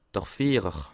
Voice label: "fiehrer", Alsace

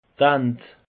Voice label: "ant", alsace; peche

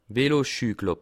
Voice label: "veloschue", alsace

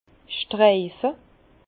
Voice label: "streife", Bas Rhin; doler; vin

